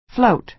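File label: "float", American English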